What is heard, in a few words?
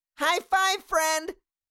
Cartoon little child voice high five friend